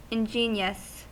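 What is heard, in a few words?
"ingenious", American English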